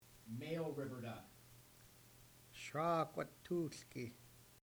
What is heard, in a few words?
river duck male